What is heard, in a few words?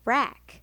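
"rack", English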